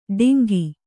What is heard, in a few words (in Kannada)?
"ಡಿಂಗಿ", kannada